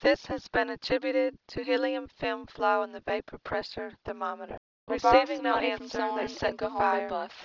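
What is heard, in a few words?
mixture 2 speakers female inharmonic shift; Inharmonic: shifted; Timit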